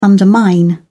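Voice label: "undermine", English, British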